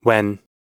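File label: "when", American English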